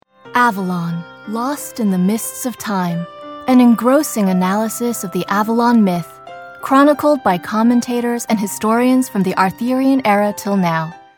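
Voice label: American English, narration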